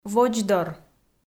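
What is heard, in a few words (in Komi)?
"водздор", Komi, female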